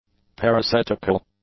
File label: "parasetical", English; adjectives